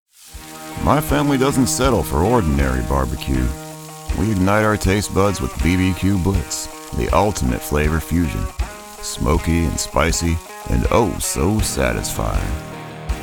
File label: Southern, Texas Gritty Accent; Television Spots; BBQ